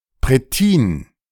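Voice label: "Prettin", German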